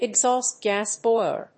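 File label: "exhaust gas boiler", English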